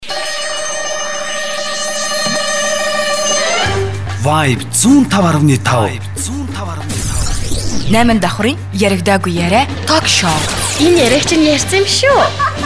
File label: Mongolian male